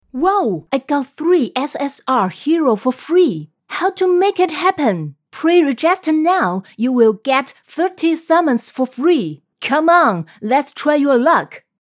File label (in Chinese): 英语游戏广告